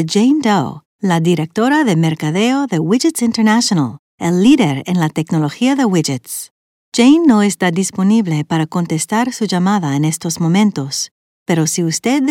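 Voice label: Spanish Spain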